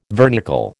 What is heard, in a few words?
"vernicle", American